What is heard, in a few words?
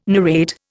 "narrate", Indian